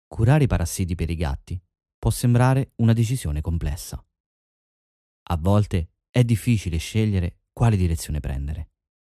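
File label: Italian, general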